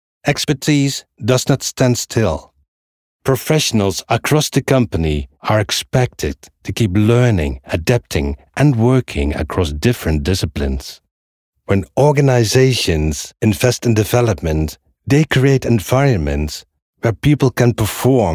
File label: professional learning